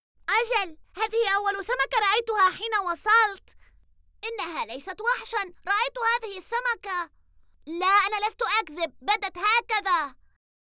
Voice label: Cartoon